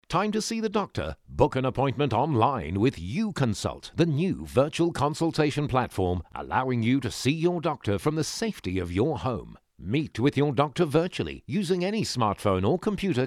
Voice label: English Radio VO